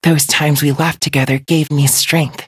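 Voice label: level up, English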